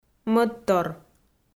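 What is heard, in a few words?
"мӧдтор", Komi-Zyrian, female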